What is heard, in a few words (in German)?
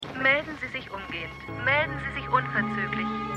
Frauenstimme; Stimmproben; Earth 2